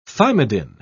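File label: "thymidine", British English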